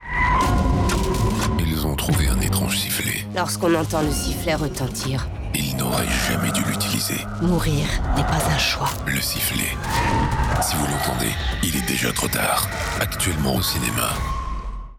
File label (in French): Spot